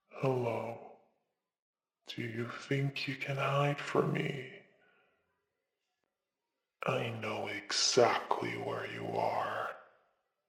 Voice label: scariest audio for prank calls a creepy man talking